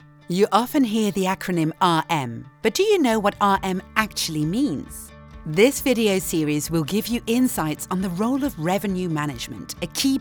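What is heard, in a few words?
English instit; Accor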